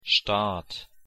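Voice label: pronunciation "staat", German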